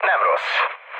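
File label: Hungarian trick mood low, speech; War Thunder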